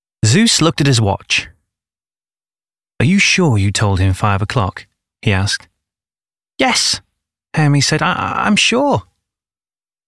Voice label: Audiobook Sample